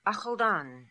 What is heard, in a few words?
"Akhaldan", Beelzebub pronounciations; Fourth Way